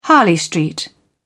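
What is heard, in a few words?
"harley street", British English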